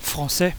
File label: french